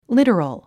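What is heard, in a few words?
"literal", English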